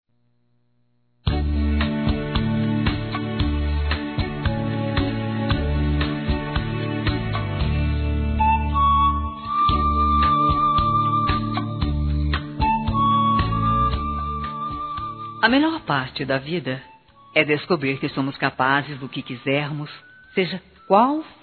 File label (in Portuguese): DESEJANDO SUCESSO Feminino; Telemensagens; Telemensagens 2017